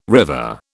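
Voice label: "river", British English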